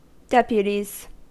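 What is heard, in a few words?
"deputies", American English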